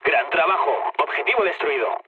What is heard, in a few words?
bomb success, mood low, speech; War Thunder